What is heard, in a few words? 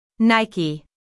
"nike", US; IPA: /ˈnaɪ.kiː